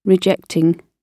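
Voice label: "rejecting", British English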